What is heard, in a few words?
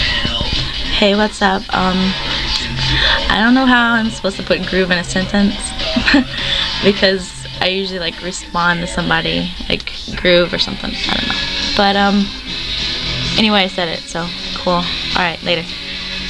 Groove, Ramblings of some obviously insane girl